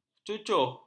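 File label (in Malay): "cucuh", Malay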